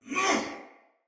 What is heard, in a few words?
Attack, Soldier Male; Sinecure Soldier Male B